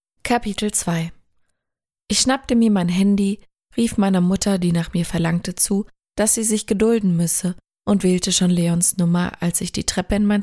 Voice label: German Voice Over

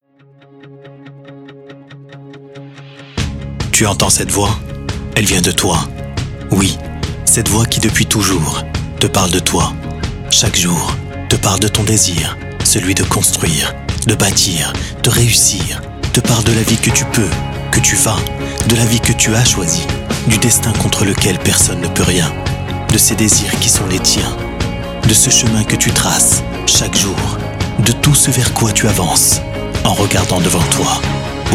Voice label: French Voice Over Hot Calm epic voiceover

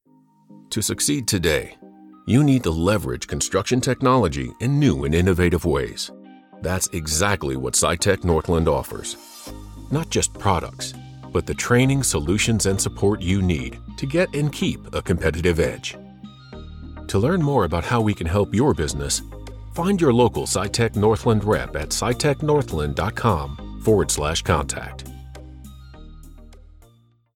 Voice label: Corporate Video Construction Services

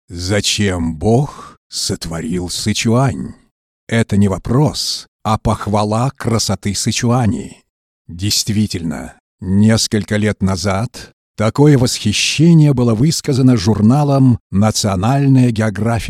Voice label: Russian Voice Over warm calm

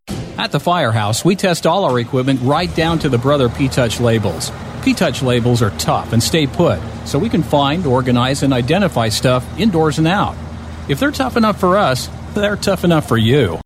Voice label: American English Voiceover

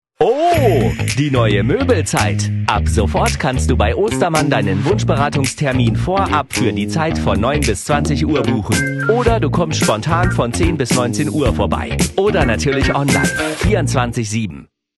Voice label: German Voice Over energetic short ad

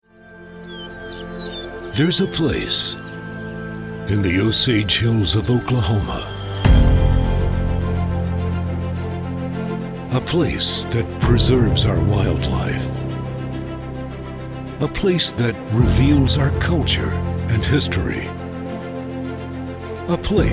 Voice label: American English Voiceover

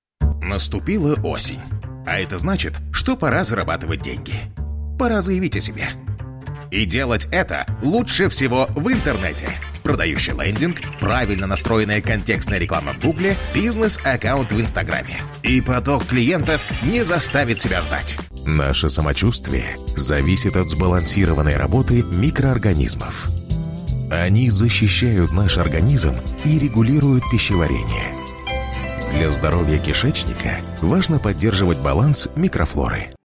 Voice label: Russian voice over brisk hot

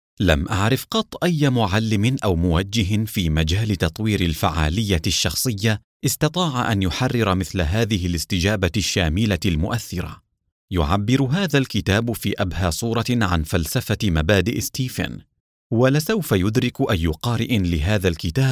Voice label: Arabic voice over Animatic storytelling

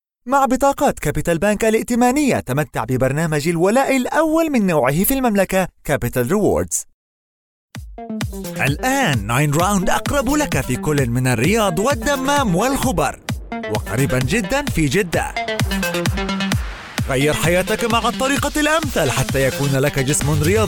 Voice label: Arabic Voiceover

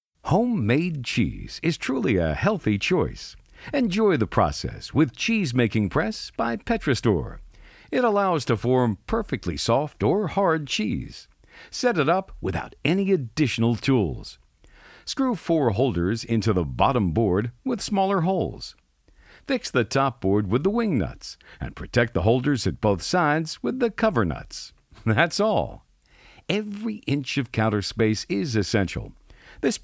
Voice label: English Voice Over Sample

